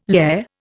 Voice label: "lehr", Indian

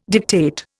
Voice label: "dictate", Indian

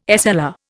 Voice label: "SLR", Indian

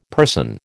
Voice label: "person", American

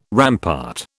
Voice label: "rampart", British

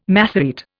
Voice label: "Masorete", Indian

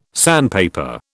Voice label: "sandpaper", British

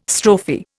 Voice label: "strophe", Indian

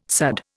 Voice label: "sudd", Indian

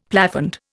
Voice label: "plafond", Indian; Dictionary

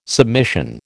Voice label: "submission", American

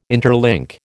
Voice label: "interlink", American

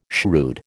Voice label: "shrewd", American

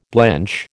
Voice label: "blench", American

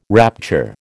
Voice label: "rapture", American

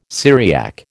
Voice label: "Syriac", American

